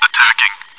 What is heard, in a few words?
"attacking", radio bot; czero